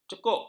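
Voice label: "cekuk", Malay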